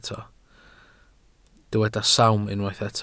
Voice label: "sawm", welsh